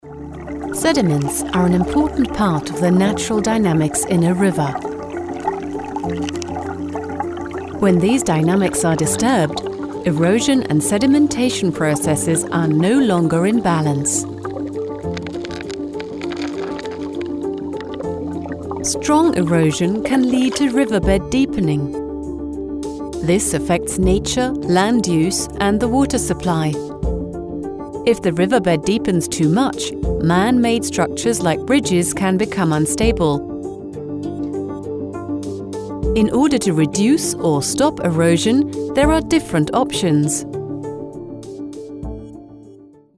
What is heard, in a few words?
EN Dokumentar; Donau Sediment